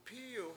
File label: "pIIy1w", washo; to duck